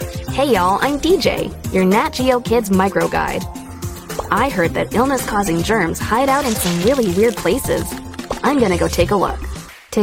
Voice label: K12 e-Learning Interactive Media, female